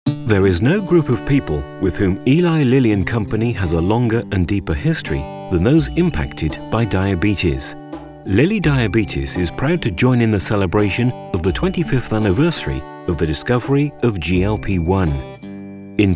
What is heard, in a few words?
technical caring